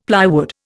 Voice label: "plywood", Indian; Dictionary